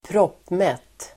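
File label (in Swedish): "proppmätt", Swedish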